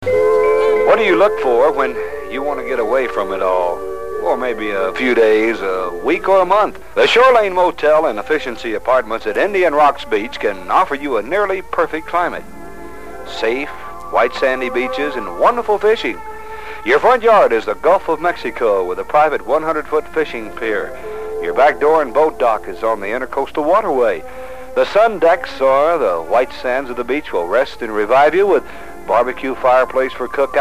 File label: commercial; WWAB; Shore Lane